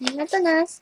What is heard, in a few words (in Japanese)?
ありがとナス（小声）